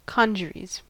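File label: "congeries", American English; pronunciations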